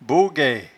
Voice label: "boguaue", patois jura